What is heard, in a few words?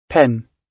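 "pen", British English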